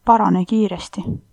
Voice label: get well soon, estonian